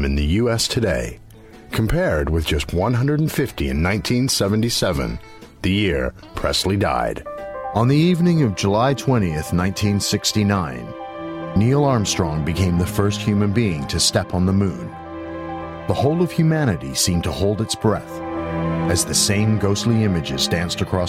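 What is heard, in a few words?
American English, Narrative; GoLocalise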